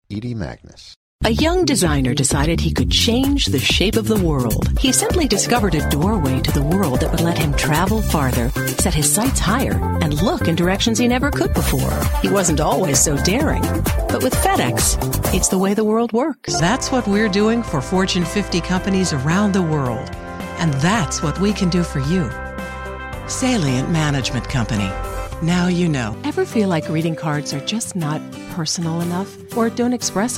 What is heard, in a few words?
commercial